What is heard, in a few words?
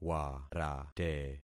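"warate", concatenated syllables; Affixing experiment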